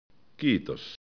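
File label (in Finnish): "kiitos", Finnish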